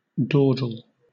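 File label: "dawdle", British English; pronunciations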